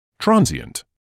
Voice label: "transient", medical English